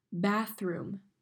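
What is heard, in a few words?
"bathroom", English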